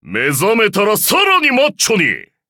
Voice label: levelup ja; cookie run

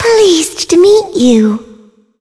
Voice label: touch, en; Cookie Run

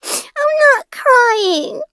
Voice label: defeat, en; Cookie Run

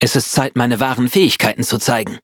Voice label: loading, de; Cookie Run

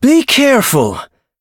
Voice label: battle en; cookie run

